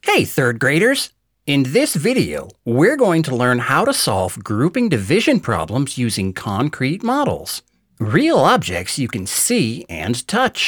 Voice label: Instructional Education Children's

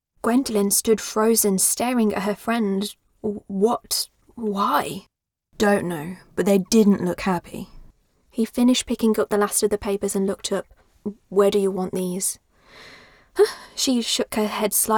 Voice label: Dystopian Fantasy Multi Character Dialogue

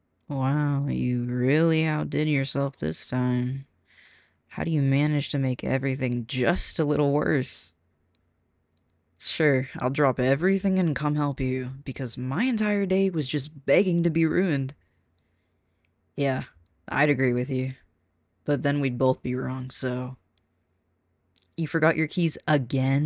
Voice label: Deadpanned Female Friend

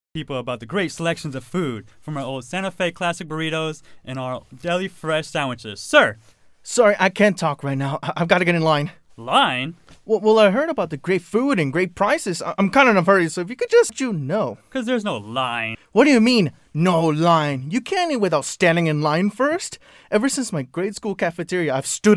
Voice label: voice over commercial

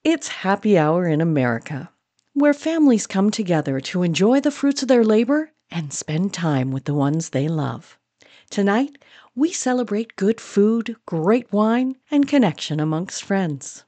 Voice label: Red Wine ad

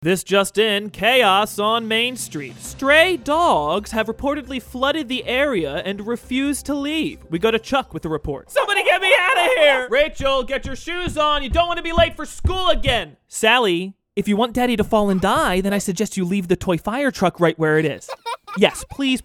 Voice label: Character Animation

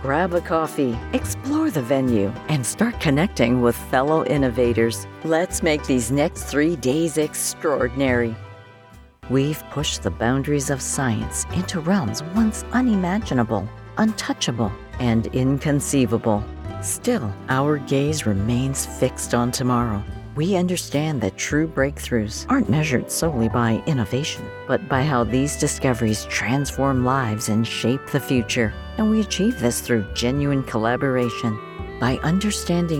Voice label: Corporate Tech Music; Connect; Boston Sci

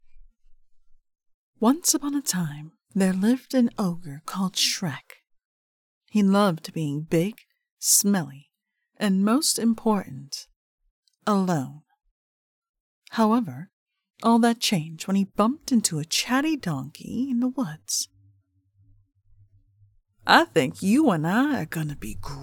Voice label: Narrator; Dreamworks

